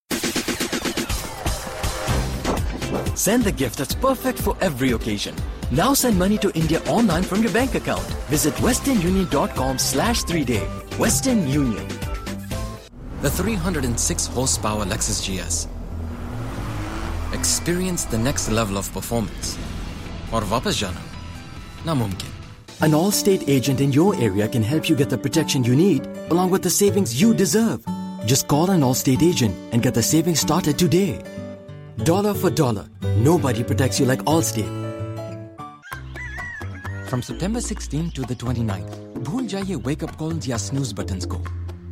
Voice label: commercial Indian accent; TaDa